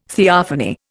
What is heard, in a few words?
"theophany", Indian